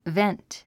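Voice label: "vent", 英単語 発音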